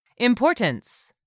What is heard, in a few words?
"importance", English; 3000 words